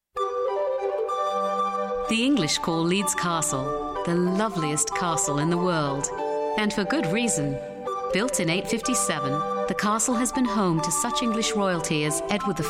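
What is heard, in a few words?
narration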